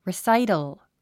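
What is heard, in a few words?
"recital", English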